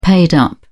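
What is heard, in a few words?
"paid up", British English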